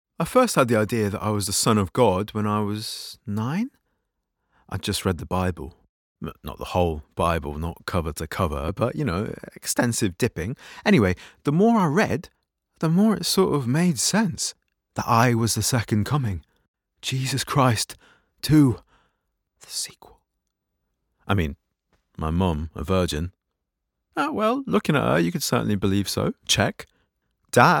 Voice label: drama, regional accents

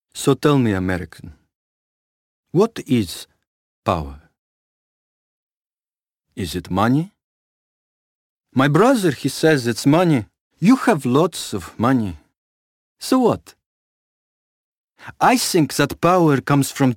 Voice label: English Russian accent

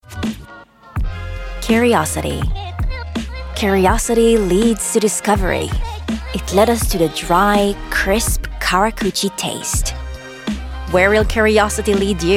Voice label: Commercials; Hebrew, Middle Eastern, Male, 20s-40s

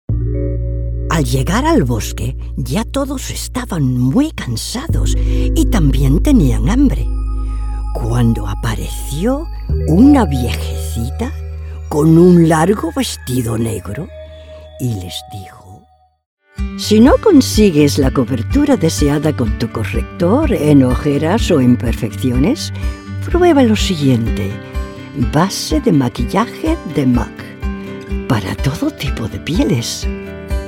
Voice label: Spanish

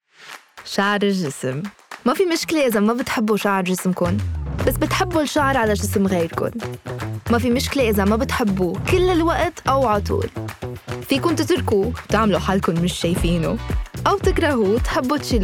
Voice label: Commercial Arabic Lebanese

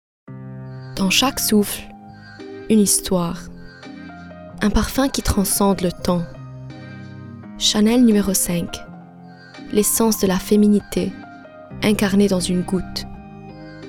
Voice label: French Commercial

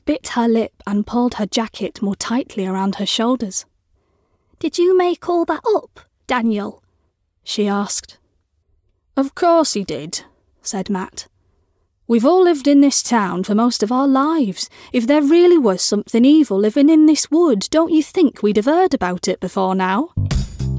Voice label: Audiobook, female

